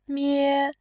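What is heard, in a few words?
"mie", Mandarin, tone 1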